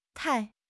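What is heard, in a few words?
"tai4", Chinese; HSK1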